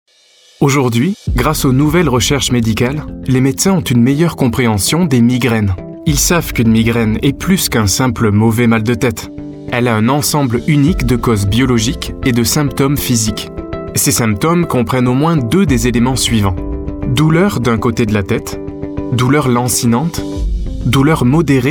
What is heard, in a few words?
french voice over male narrator; fransizca seslendirme